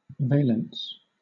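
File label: "valence", British English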